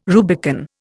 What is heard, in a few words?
"Rubicon", Indian